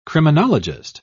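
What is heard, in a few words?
"criminologist", British English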